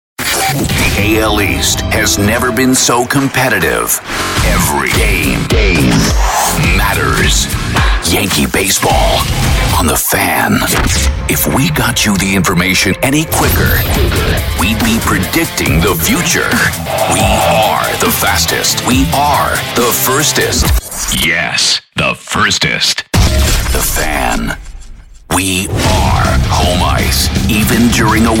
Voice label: Radio Jingles Liners